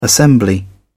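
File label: "assembly", British English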